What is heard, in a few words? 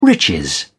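"riches", British English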